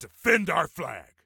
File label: Defend Flag, Soldier Male; Soldier Male 03